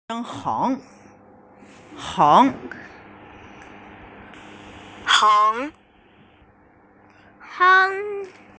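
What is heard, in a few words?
"heng2", Mandarin Chinese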